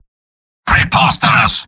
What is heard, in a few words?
Spy negative vocalization